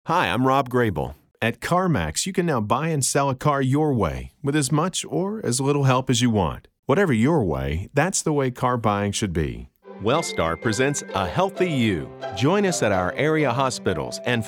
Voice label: Commercial

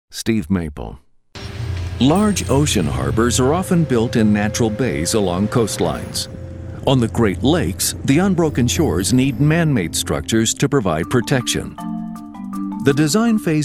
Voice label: Narr

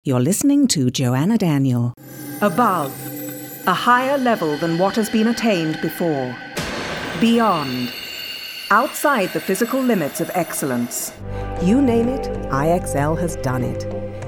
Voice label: Brit

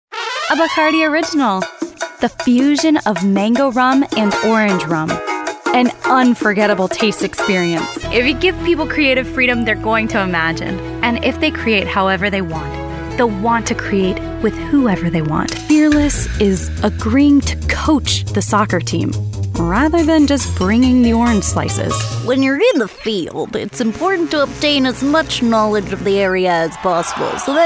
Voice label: Commercial

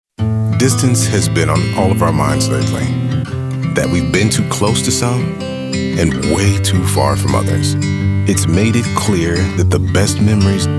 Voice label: Commercial

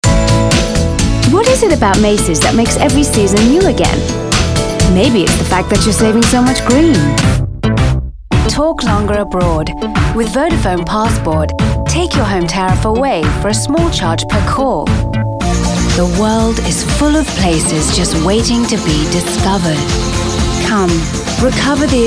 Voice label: Commercial

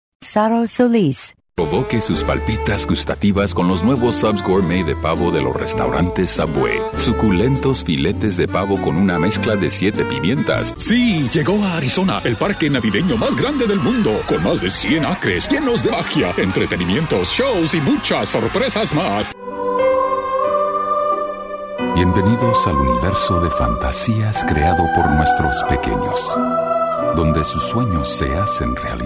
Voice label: Spanish

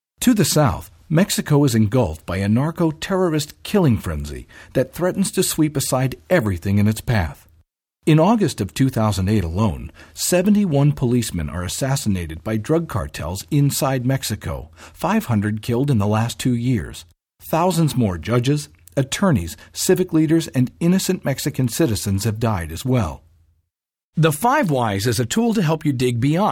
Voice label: Narration

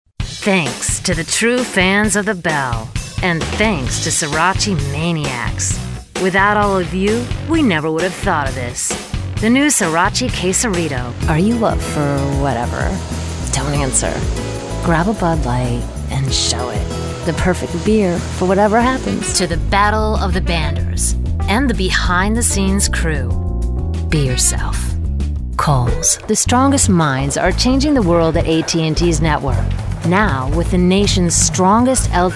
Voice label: commercial

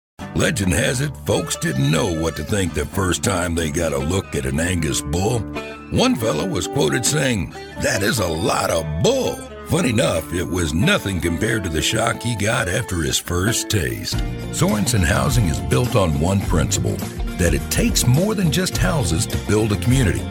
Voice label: Commercial

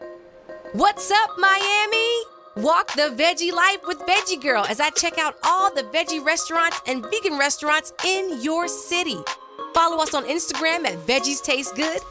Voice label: Girl Promo

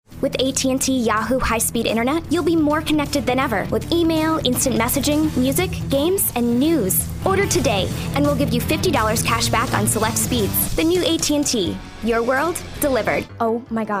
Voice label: Commercial Voice

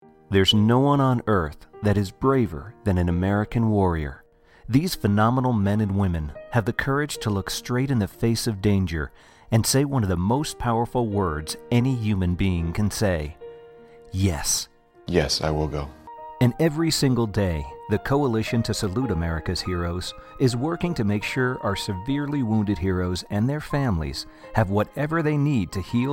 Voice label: Narrations

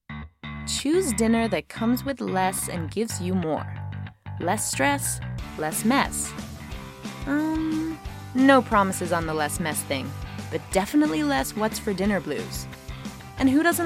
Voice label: USA; Demo reel

